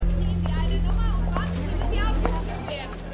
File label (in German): Mitarbeiterin